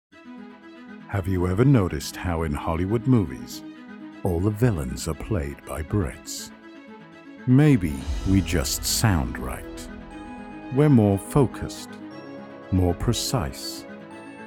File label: male british voiceover commercial